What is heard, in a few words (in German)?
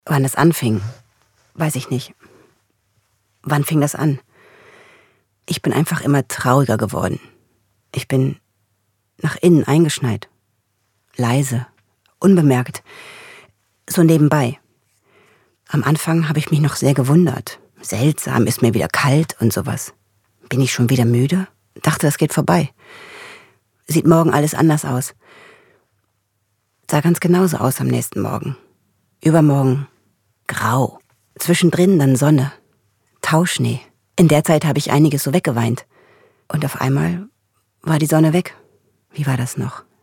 Monolog; histerikon